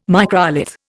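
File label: "microlith", English, Indian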